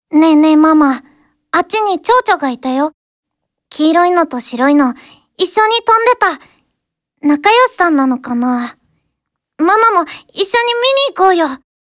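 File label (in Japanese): 幼女, ボイスサンプル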